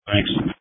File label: Police Tactical Encrypted